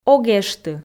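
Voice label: "ог эшты", Komi, female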